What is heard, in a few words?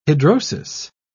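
"hidrosis", English, British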